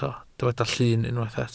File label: "llun", Welsh